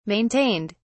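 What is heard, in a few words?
"maintained", British English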